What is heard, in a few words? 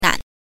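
"nan", Mandarin Chinese pinyin, neutral tone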